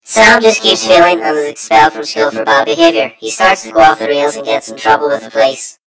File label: story voiceover; yumpod